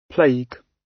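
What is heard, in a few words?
"plague", British English; Time, history; Thời gian, lịch sử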